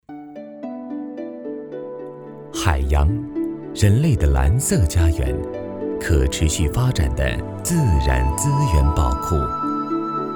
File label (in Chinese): 中年舒缓; 中年舒缓徐记海鲜